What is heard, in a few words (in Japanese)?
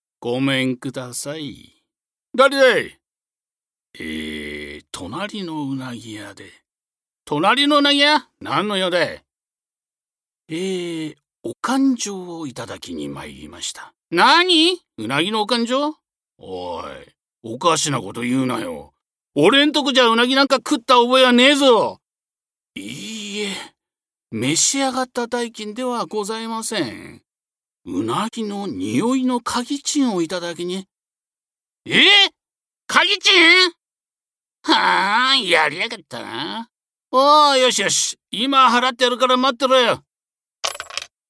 落語